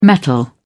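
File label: "metal", English, British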